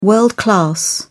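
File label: "world-class", British English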